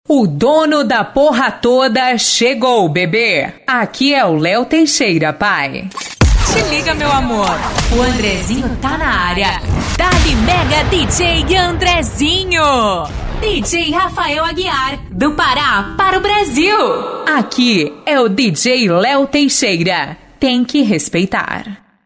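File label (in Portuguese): ♀ locutora, vozes para vinhetas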